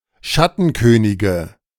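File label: "Schattenkönige", German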